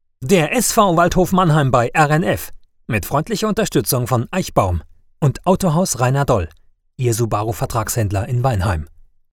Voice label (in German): Werbung